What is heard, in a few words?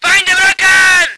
voice ger vehicle